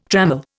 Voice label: "trammel", Indian

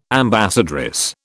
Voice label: "ambassadress", British

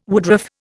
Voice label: "woodruff", Indian

